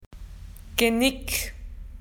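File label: "neck", Australian English; PictureDictionary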